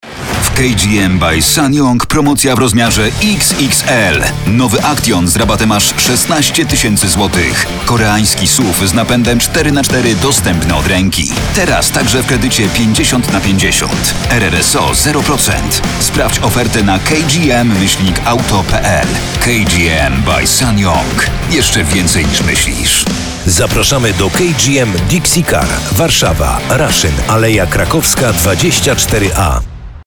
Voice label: reklama actyon; ssangyong

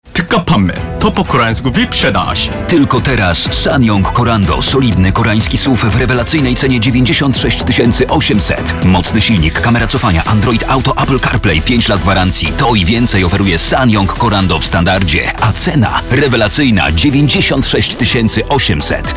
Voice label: reklama wyprzedaz korando; ssangyong

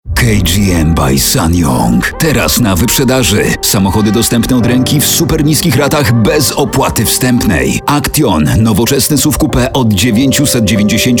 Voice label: reklama actyon torres; ssangyong

